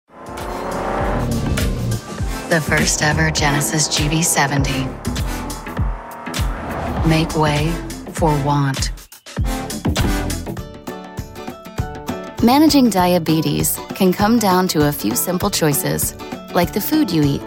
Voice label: Voiceover Commercial; Like Galadriel from Lord of the Rings, but without the accent.